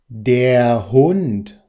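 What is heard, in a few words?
"hund", German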